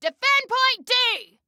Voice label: defend, Female